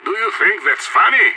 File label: friendly fire, radio; IDF